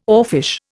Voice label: "oarfish", Indian